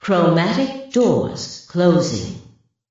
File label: announcer closing